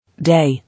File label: "Day", English